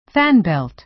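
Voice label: "fan belt", American English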